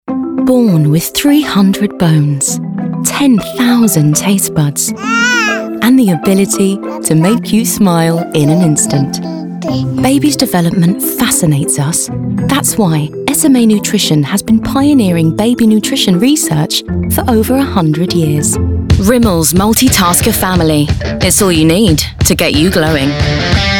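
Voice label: Commercial, Female, Bright, Conversational, Engaging, Reassuring; COMMERCIAL REEL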